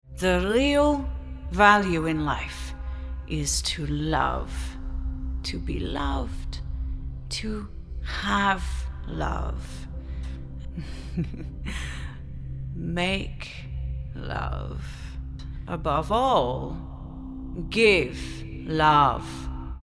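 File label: Pirate Queen Female Adult European Sultry Commanding; Mondrea Memories; accents characters age voice samples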